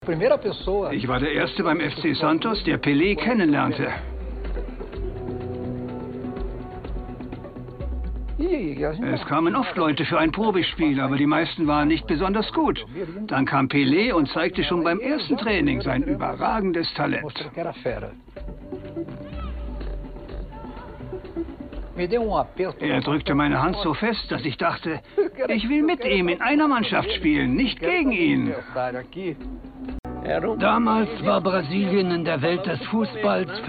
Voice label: Doku; Pele; Pelé Doku Netflx

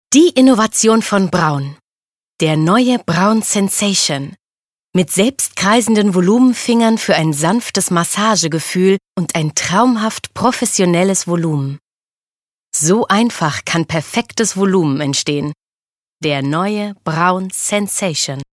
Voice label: Werbung; Braun

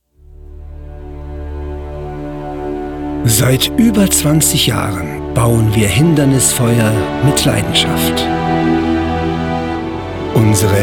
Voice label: imagefilm deutsch; Hörprobe Imagefilm Hindernisfeuer; lichttechnik

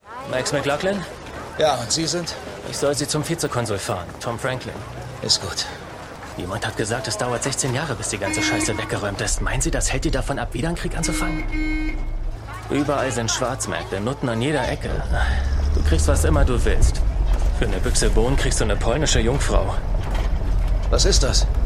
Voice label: Synchron; Shadowplay

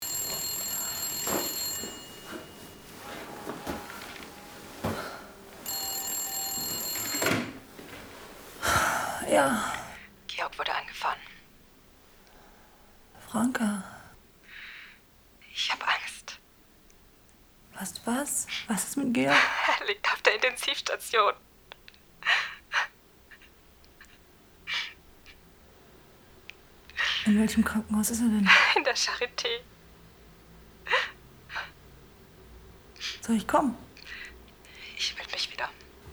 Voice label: Telefonat; Rolle FRANKA

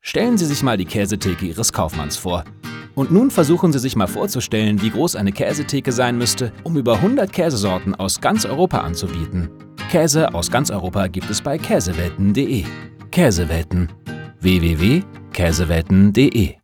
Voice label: Werbung Kaese

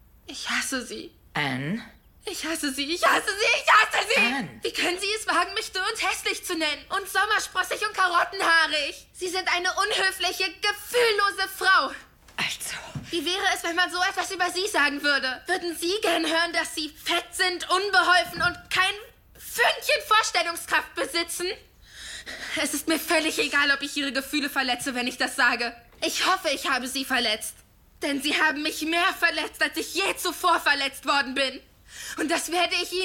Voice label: Dialog; Anne with an E, Netflix; Anne, Titelrolle

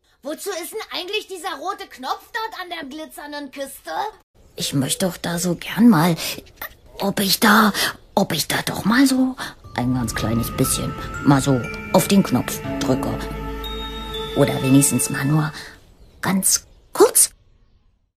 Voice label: Animation

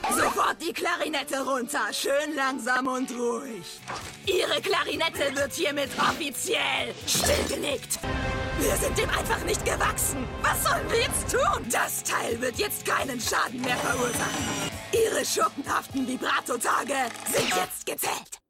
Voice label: Animation changiert kratzig

